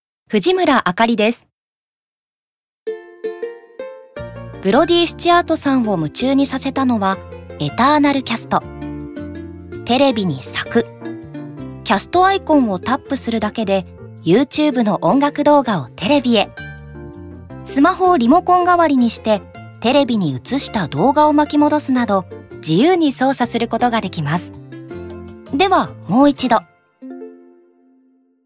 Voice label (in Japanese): ナチュラル